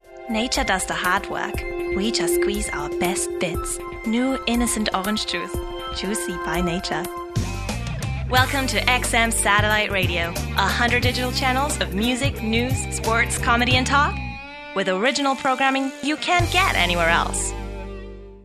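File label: German English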